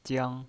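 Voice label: "jiang", first tone, pinyin voice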